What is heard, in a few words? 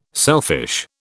"selfish", British